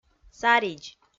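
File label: "саридз", Komi, female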